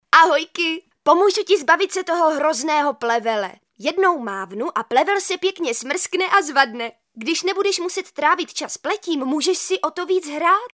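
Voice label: female Czech drama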